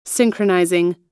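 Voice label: "synchronizing", American English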